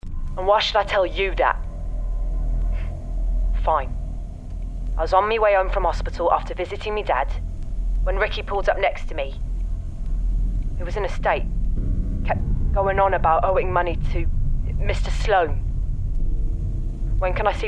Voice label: Character Criminal London